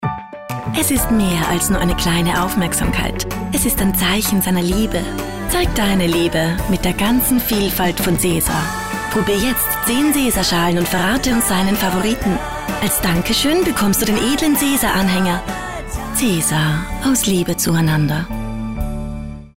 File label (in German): Werbung Österreichisch; Cesar